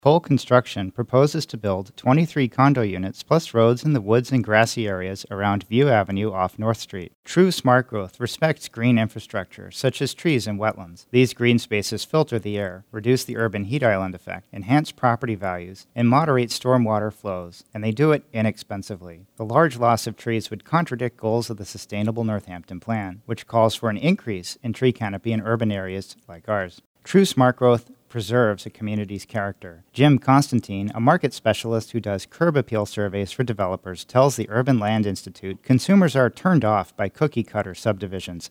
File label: spot vox